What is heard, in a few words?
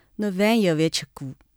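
shaoxing, Chinese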